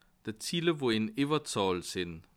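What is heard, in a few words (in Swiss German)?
"zille", Bas Rhin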